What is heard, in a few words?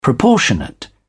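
"proportionate", British English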